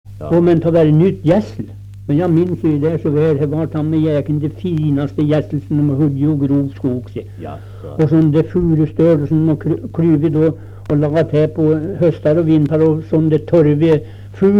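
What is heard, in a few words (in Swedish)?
Österförnebo Gästrikland, dialekter, svenska sagor; Folkminnen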